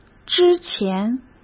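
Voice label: "之前", Mandarin Chinese; chinese flashcards; hsk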